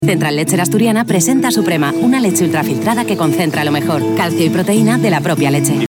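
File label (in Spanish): otros España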